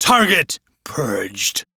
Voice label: Crew Gun Kill Fighter, Male, en; Warframe; Railjack Crew; Arbiters Hexis